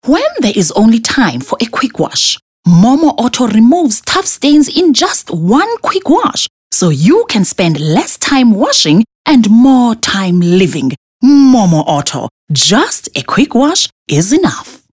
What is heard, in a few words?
English bright kasi